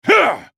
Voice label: effort; Garen; Character Voices - English